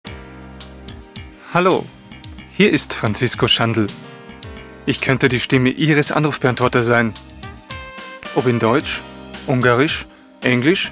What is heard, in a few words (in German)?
Tonband